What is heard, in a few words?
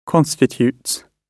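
"constitutes", British English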